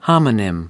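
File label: "homonym", American English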